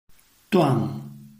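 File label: "duan", Chinese, tone 4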